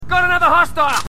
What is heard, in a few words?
marine aussie; h2dialogue; dubbo